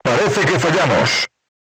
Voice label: voice message armor not pierce, crew tanks spanish